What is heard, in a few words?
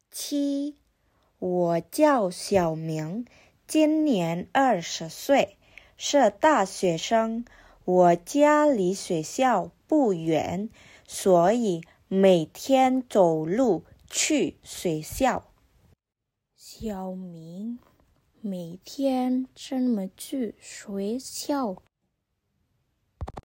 Mandarin; mandarin placement test hsk2